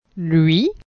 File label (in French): "lui", phonetique